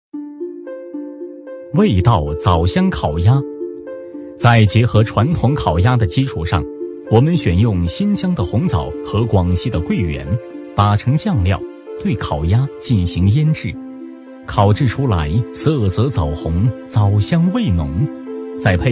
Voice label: 男 舌尖; 味道枣香烤鸭

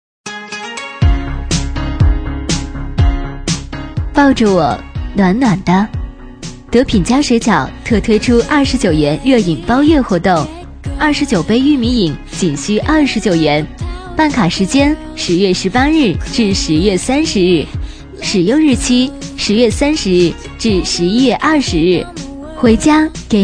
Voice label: 女 促销 温柔

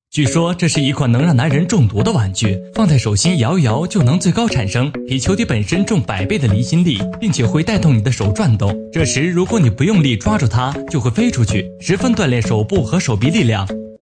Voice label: 男 自媒体 科普口播

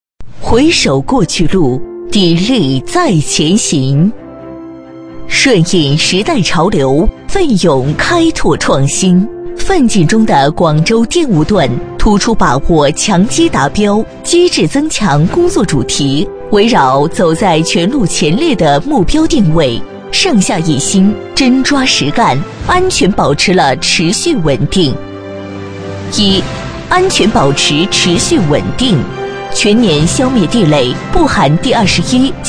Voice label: 女 宣传片 沉稳大气; 广州电务段

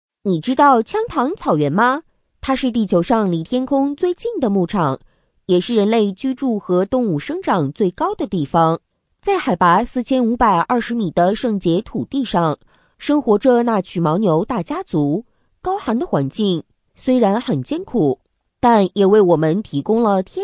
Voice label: 【童音】男童

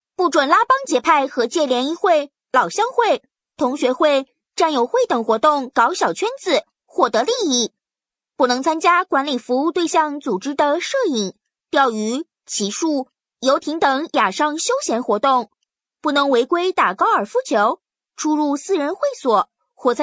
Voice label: 女 童音 活泼男童

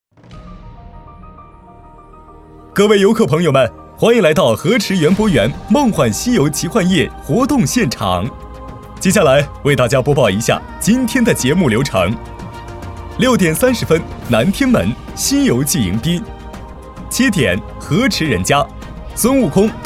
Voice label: 男 主持; 专题; 巴南

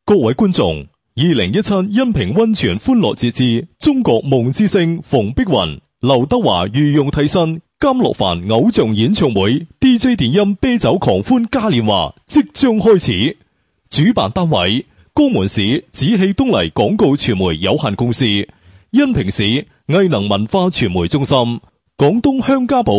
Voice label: 粤语 男; 狂欢节-开场

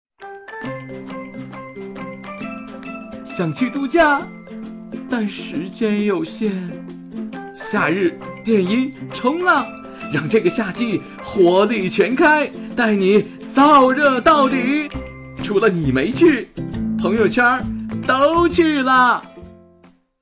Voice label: 男 促销 青春活力, 促销男配

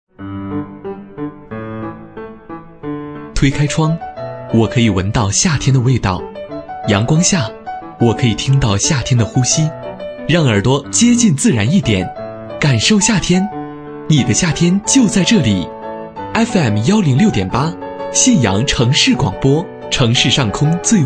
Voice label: 男 广告 城市广播; 信阳城市广播

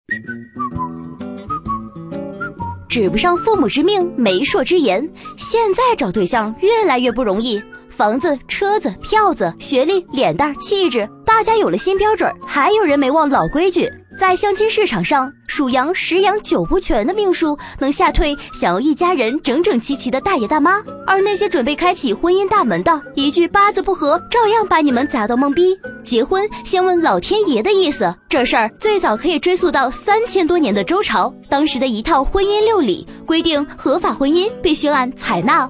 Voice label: 女 飞碟说; 父母之命 媒妁之言

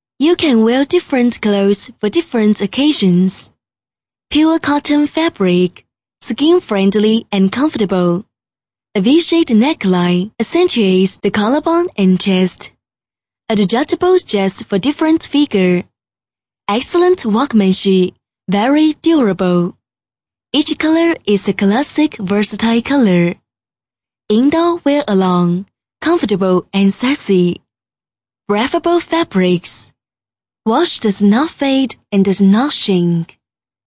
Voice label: 英文 产品介绍, 女

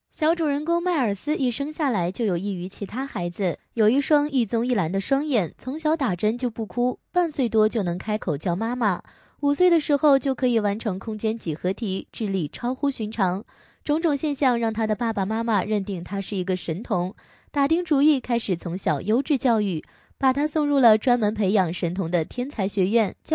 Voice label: 女 电影解说

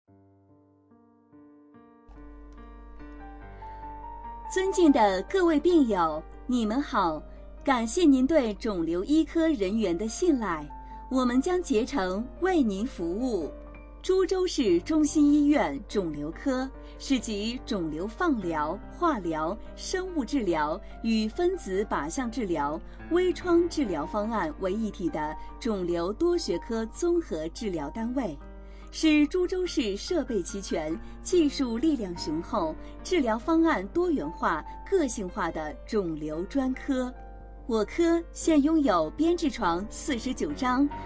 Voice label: 解说 医院介绍

